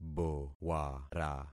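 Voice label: "bowara", concatenated syllables; Affixing experiment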